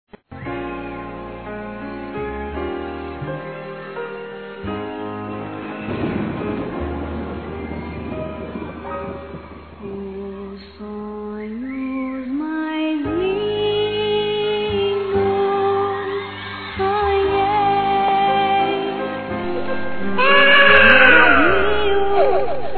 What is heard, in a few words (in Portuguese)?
Parabéns de Amigos aos Pais Masculino; Telemensagens; Felicitações; Telemensagens 2017